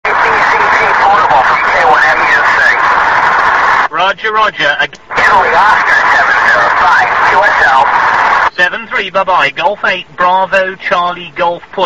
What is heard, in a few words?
qso